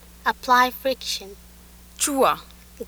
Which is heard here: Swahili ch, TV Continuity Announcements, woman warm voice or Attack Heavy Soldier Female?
Swahili ch